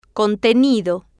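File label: "contenido", Spanish, female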